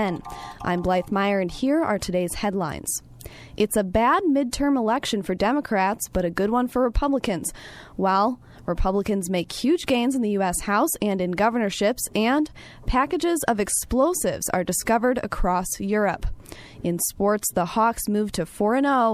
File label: Radio Newscast